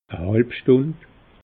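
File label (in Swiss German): "halb stund", Alsatian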